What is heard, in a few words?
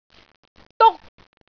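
"duk1", Cantonese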